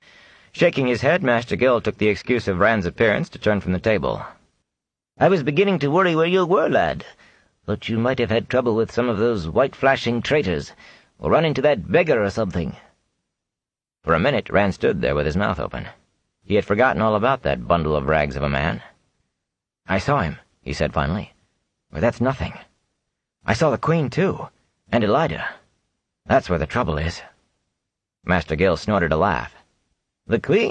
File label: audiobook voices sample